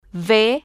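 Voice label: "v", spanish, female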